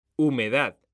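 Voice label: "humedad", Spanish, male; mekanta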